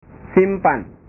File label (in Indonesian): "simpan", bahasa